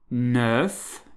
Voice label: number 9, French